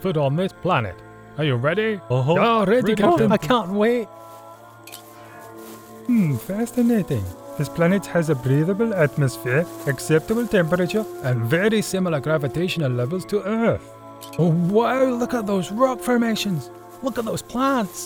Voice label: Animation Reel